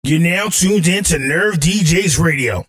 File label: Radio Drop